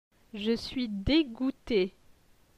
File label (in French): "je suis degoute", francais